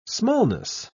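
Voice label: "smallness", English, British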